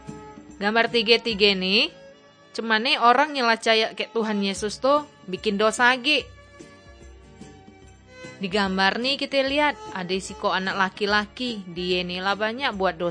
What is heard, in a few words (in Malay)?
kabar baik good news malay bangka